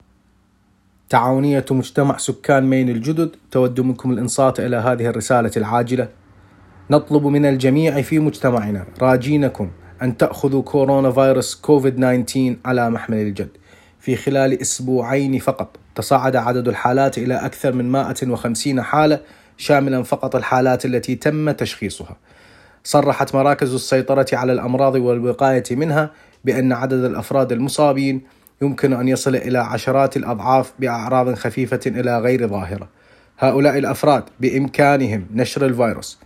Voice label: Arabic; COVID-19; Updates for New Mainers